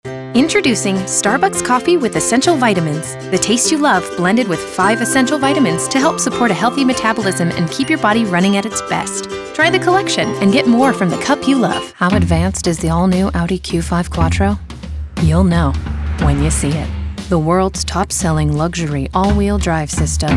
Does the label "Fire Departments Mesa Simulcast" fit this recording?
No